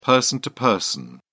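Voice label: "person-to-person", British English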